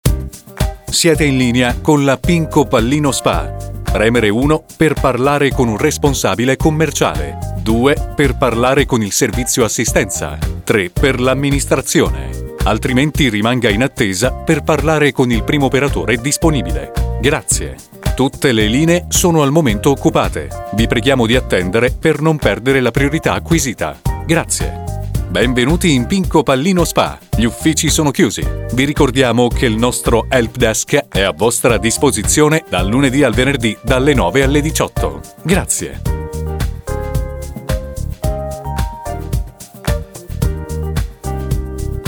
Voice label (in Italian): Voce maschile Segreteria e IVR